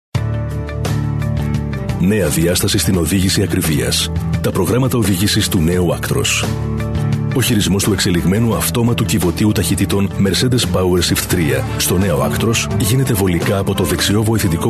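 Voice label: Greek, male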